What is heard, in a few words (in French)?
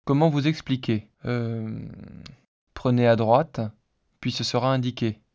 hesitation synthese basique